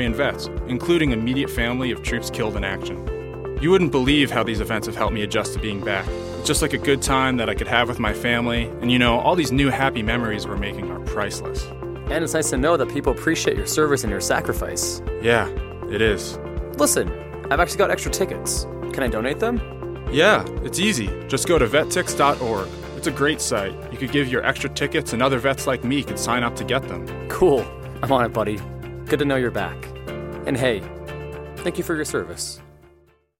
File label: What gives Male; Vet Tix